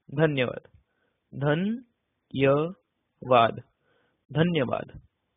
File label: Thank you Marathi